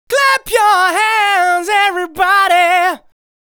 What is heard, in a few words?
"Clap Your Hands Everybody", vocal phrases; Ultimate Vocals